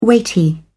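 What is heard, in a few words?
"weighty", British English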